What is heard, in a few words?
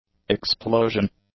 "explosion", English; nouns